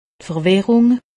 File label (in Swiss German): "verwirrung", alsace; medecine